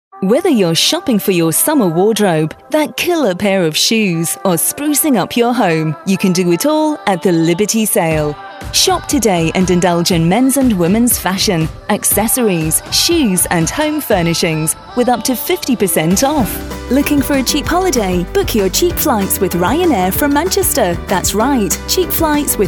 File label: Commercials (English RP); reels